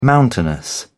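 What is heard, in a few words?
"mountainous", British English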